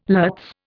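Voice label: "lutz", Indian English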